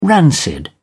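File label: "rancid", British English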